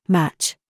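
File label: TRAP, words, British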